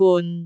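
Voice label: "gun6", Cantonese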